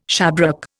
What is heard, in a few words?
"shabrack", Indian